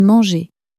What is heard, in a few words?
"manger", french; flashcards